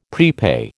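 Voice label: "prepay", American English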